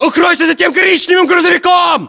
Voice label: order cover truck brown, ru; localized english

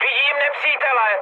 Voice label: enemy detected, speech cze, mood high

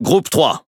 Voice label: Speech Pilots Torpedo ID, FR; World Of Warships

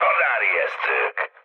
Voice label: enemy detected, speech hun; War Thunder

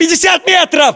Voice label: threat distance, ru; localized english

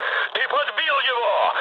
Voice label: player killed enemy, mood med, speech ru; War Thunder

